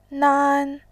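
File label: "nan", Mandarin, tone 1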